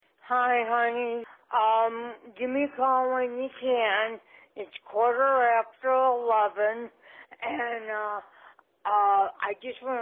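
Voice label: Voicemail - Former neighbor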